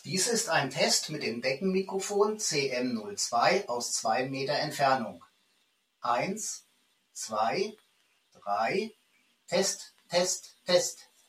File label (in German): Deckenmikrofon Abstand 2m, mikrofon test sprachqualitaet; Geräte Klasse: Deckenmikrofone; Deckenmikrofone CM02; 2 Meter Abstand zum Sprecher